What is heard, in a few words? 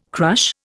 "crush", Indian